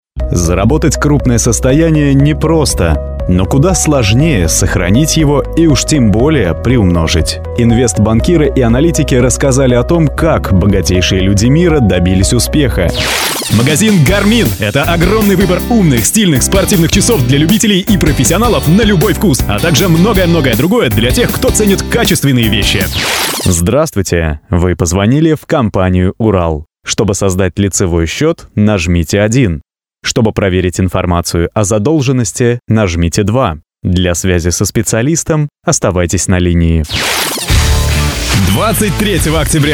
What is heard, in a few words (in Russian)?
Мужской